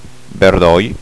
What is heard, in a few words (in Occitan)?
"berdoi", Gascon